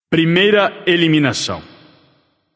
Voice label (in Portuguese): alert notify kill streak firstblood, vox caster portuguese